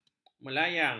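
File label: "melayang", Malay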